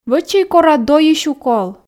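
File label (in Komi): вӧчӧй кора дойысь укол, Komi, female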